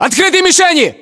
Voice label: threat infantry exposed, battlechatter ru